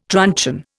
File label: "truncheon", Indian